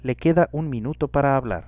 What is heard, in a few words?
one minute warning, es